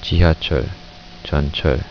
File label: "subway", korean